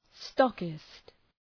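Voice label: "stockist", English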